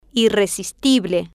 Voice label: "irresistible", Spanish